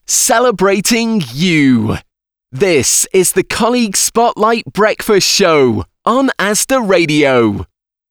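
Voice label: radio ID voiceover, Male; Asda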